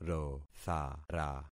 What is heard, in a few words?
"rothara", concat syllables; Affixing experiment